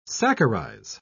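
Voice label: "saccharize", English, British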